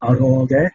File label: "aroge" Ancient Greek